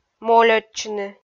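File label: "мольӧдчыны" Komi, female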